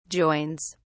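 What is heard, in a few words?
"joins", British English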